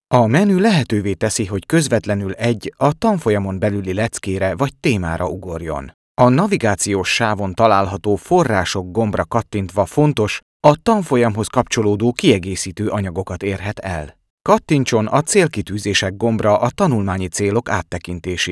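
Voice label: Hungarian, male, e-learning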